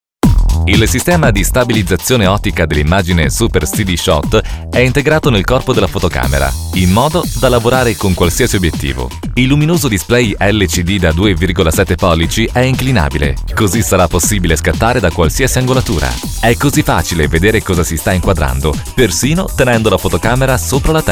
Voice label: Italian

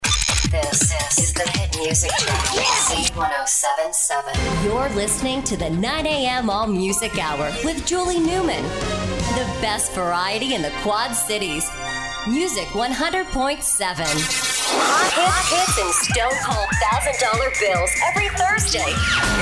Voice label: imaging, female voice talent

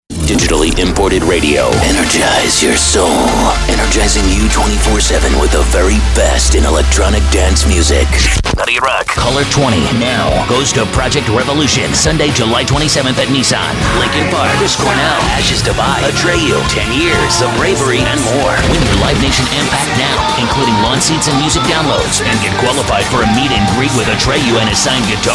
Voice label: imaging, male voice talent

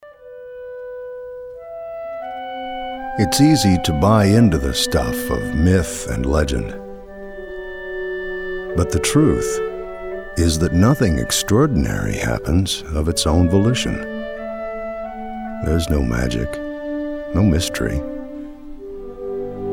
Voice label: narr, male voice talent

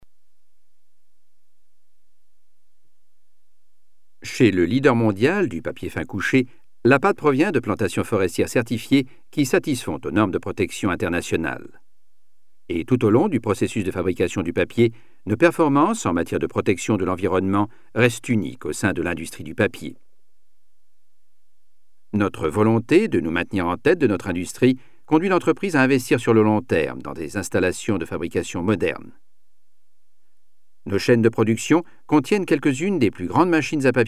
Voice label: french; foreign languages

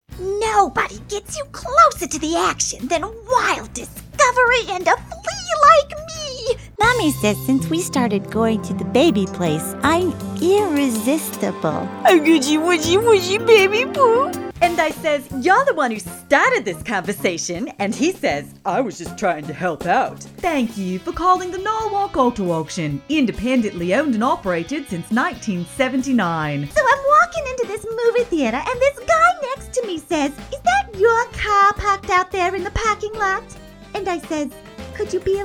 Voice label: female voice talent

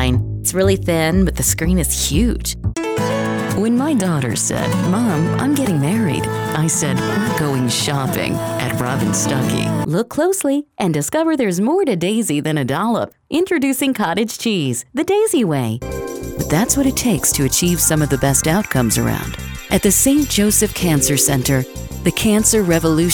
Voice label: female voice talent comm

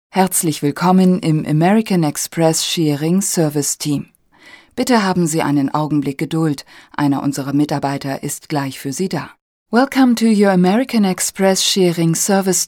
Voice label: German

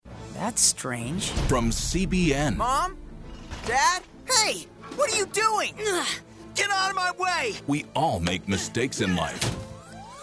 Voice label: promo, male voice talent

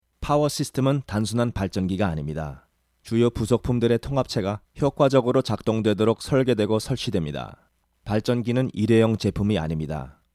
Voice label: Korean, Korean voice overs, Male

